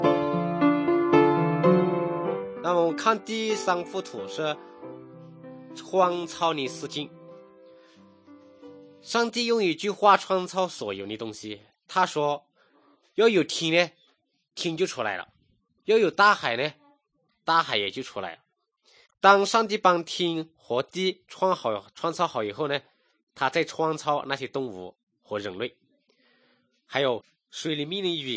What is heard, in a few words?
good news shan, drama; kokant shan; thailand bible society nt